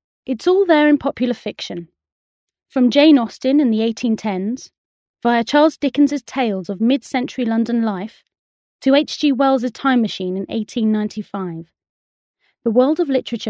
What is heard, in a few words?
British F, British English